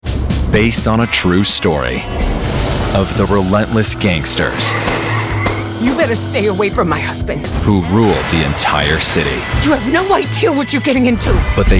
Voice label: men trailers

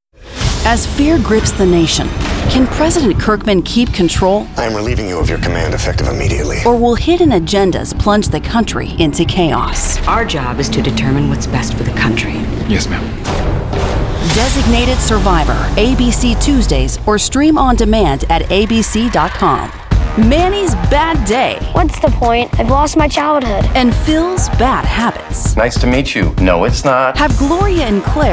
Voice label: women promos

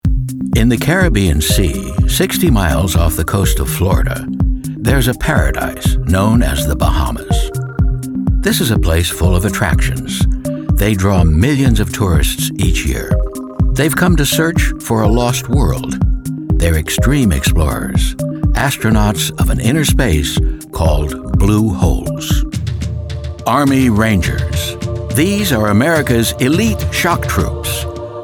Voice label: men narration

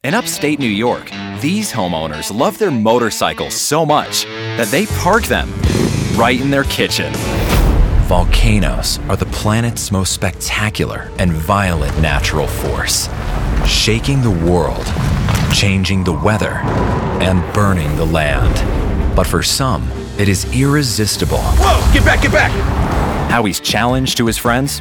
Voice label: men narration

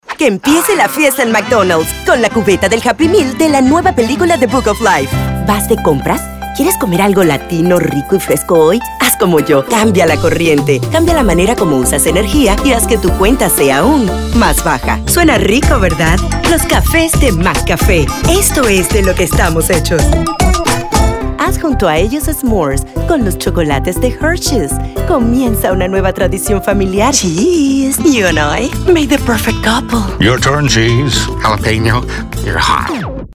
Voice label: spanish commercial

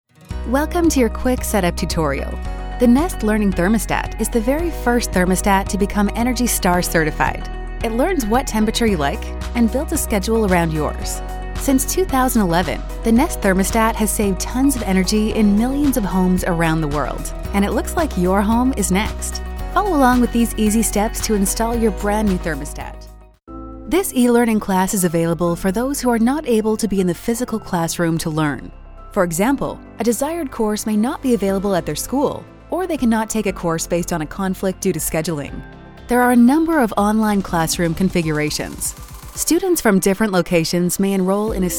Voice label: women elearning

